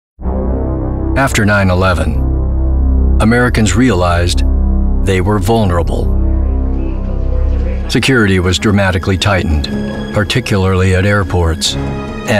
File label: narration; frangibles